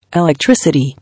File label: "Electricity", English